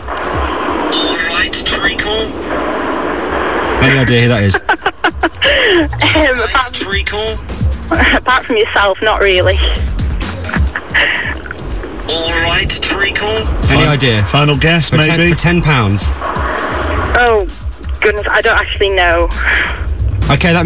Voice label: mystery voice